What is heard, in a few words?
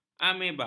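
"ameba", Malay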